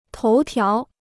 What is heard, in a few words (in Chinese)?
"头条", Chinese